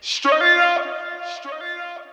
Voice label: vox

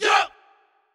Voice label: "Yup" Hard, Vox

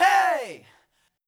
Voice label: "hey", Vox

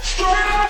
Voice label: vox

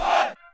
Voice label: Southside Vox

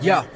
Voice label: "YO", Vox

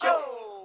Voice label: "GO!!", Vox

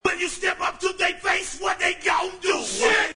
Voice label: accapella "shit"

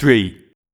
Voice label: "Three", Vox

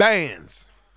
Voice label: "Bands", Vox

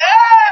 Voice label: low london Vox

